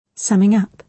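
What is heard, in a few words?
"summing-up", British English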